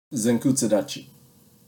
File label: "Zenkutsu-dachi" Japanese